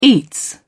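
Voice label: "eats", British English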